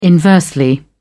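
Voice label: "inversely", British English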